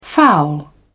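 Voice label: "foul", English, British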